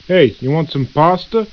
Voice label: gangster idle